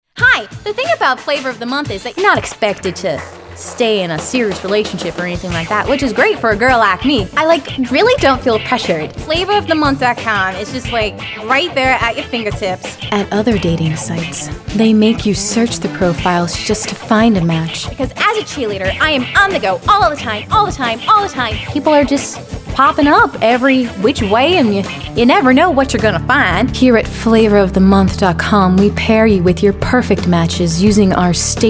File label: Character